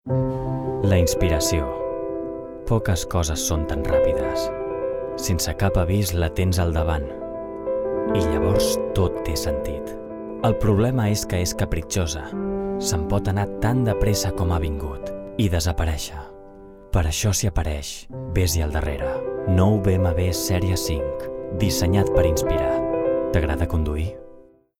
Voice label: male catalan car comercial; ideasonora

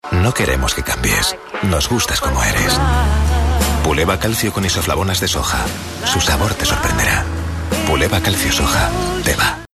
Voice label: male spanish comercial; ideasonora

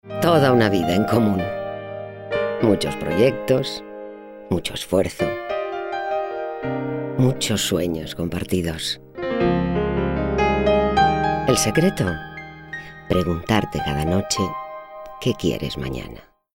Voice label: female spanish comercial; ideasonora

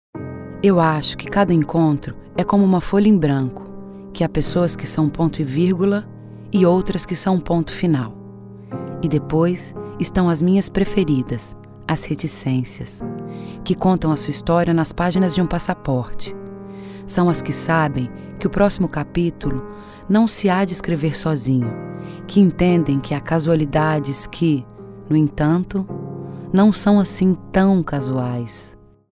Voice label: female brazilian comercial; ideasonora

